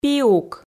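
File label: "пиук", Komi, female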